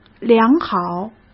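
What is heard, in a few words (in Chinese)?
"良好", Mandarin Chinese; hsk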